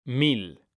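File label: "mil", Spanish, male; mekanta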